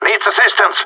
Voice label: radio taking fire; Counter Strike Model Pack; CS:GO; gsg9